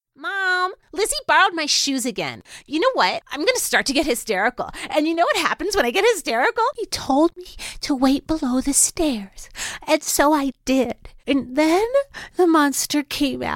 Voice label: Animation